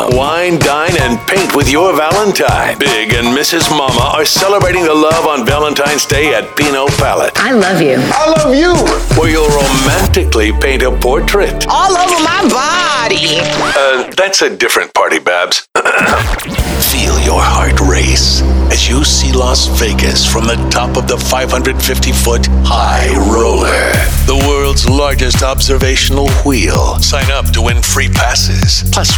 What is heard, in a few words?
rock, radio imaging